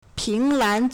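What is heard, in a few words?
"ping2lan2", Mandarin Chinese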